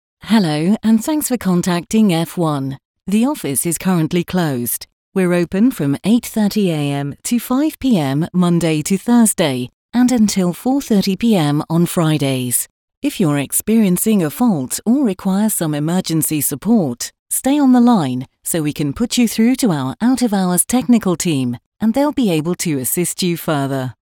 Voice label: Example Night Mode Message Corporate Voice